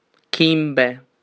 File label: "5", Sardinian